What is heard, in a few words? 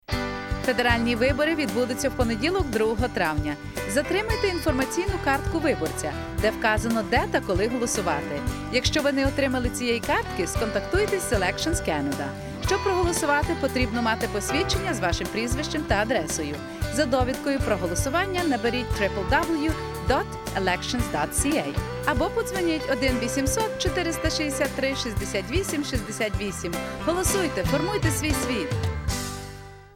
ukrainian